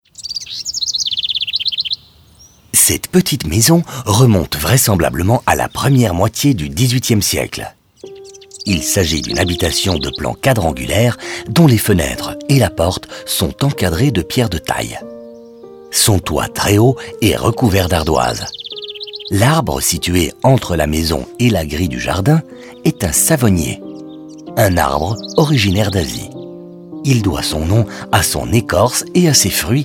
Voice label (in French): audioguide fr enfant; modave